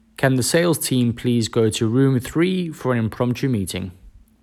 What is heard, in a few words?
impromptu meeting announcement; loxone